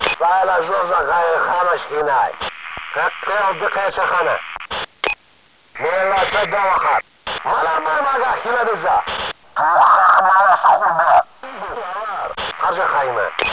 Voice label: radio chat; svencoop; paranoia; terror